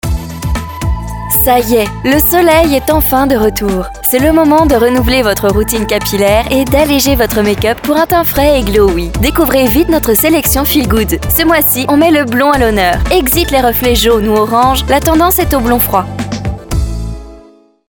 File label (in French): voix fem, voix off